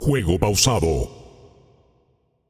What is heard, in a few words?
Announcer Global Game Paused